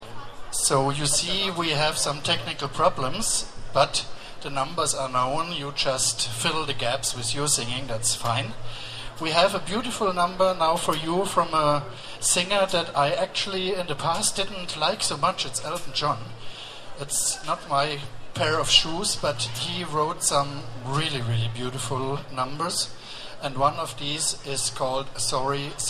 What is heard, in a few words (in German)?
Ansage